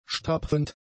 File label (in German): "stapfend", German; adjectives